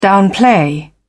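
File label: "downplay", British English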